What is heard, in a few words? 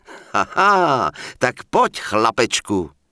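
Human Male Standard NPC Pissed, Czech; wowpreklad; czwow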